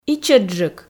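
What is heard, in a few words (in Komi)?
"ичӧтджык", female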